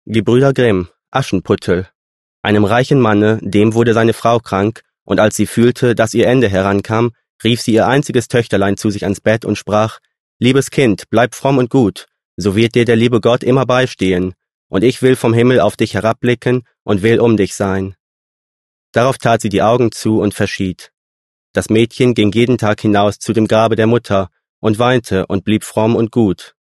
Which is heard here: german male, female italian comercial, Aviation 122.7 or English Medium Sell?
german male